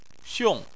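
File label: "xiong", Chinese, fourth tone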